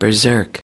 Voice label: "berserk", us